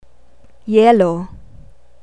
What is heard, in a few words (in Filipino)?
"yelo", Tagalog